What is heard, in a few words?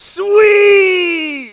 sweet; Splashdown; XBOX